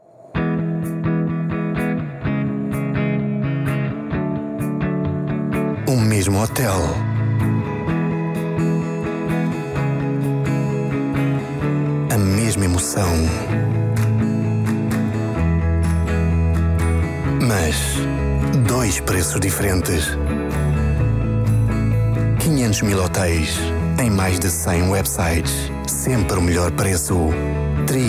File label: tv spot pt iberian; trivago